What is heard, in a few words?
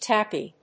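"Tappy", English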